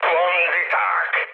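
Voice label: Hungarian enemy detected mood high, speech; War Thunder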